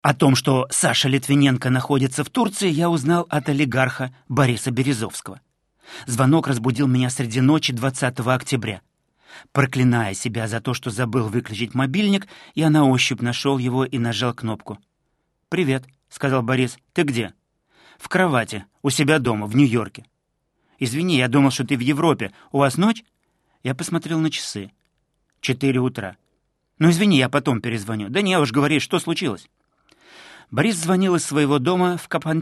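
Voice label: Russian, male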